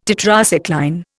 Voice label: "Tetracycline", Indian